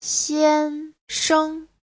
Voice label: "xian1sheng5", Chinese; Giáo trình Hán ngữ